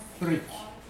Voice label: bridge, Mokilese